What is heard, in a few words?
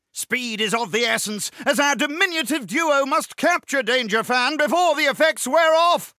narrator; Danger Mouse - Ultimate